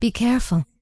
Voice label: Night Elf Female Standard Farewell

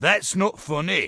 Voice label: Dwarf Male Standard NPC Pissed

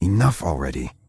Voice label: Night Elf Male Standard NPC Pissed

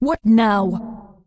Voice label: Undead Female Warrior Greeting; NPC

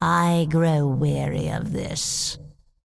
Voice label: Undead Female Magic NPC Pissed

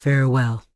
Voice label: Tauren Female Official NPC Farewell

